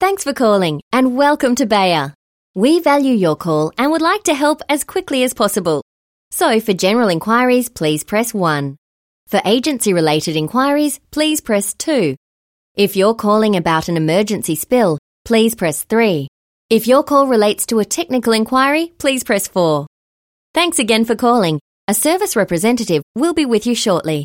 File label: english australian ivr